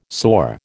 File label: "soar", American